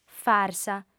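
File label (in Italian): "farsa", lingua sarda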